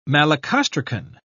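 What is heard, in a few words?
"malacostracan", British English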